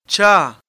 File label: "chaa", pashto; pashto-grammar